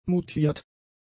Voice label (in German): "mutiert", German; adjectives